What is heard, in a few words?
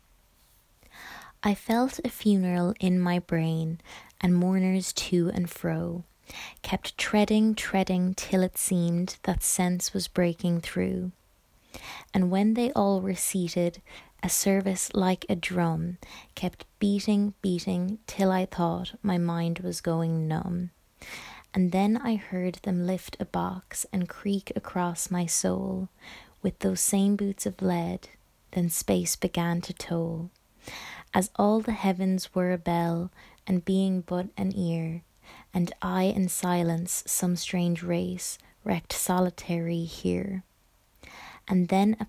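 English, Narrative Irish; GoLocalise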